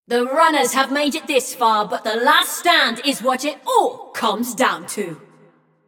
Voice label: Runners Lead, Announcer; Flux; Scraprun